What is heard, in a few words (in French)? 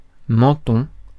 "menton", francais